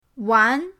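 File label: "wan", Mandarin pinyin, second tone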